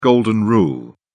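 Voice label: "golden rule", British English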